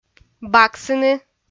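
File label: "баксыны", Komi, female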